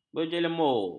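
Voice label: "berjemur", Malay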